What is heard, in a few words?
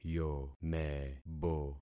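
"yomebo", concatenated syllables; Affixing experiment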